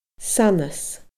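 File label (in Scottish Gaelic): "sanas", Scottish Gaelic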